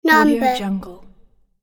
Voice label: boy says number